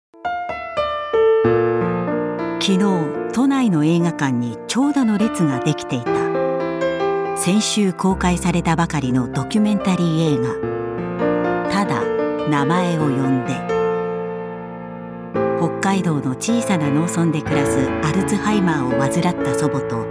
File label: japanese voice documentary